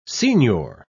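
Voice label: "signior", English, British English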